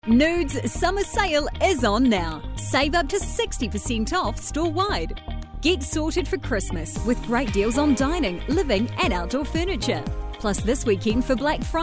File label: VOICEOVERS